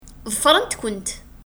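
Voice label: Kabyle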